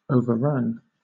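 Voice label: "overrun", British English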